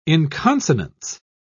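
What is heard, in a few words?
"inconsonance", British English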